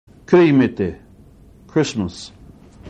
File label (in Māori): "kirihimete", maori words